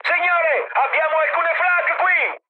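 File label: Italian damaged aa mood high, speech; War Thunder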